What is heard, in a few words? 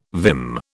"vim", British